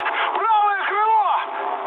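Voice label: right wing, mood high, Russian; War Thunder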